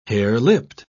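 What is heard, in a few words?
"harelipped", British English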